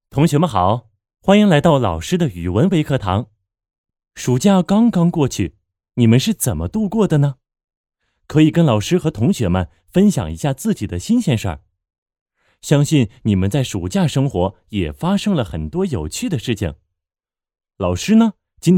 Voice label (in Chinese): 微课 试音